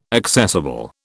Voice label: "accessible", British